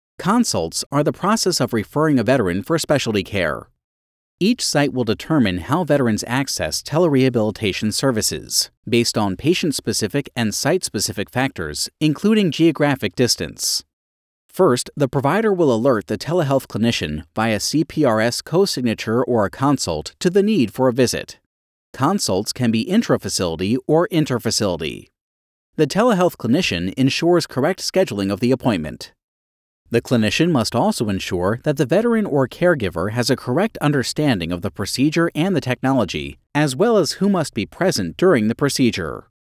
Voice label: English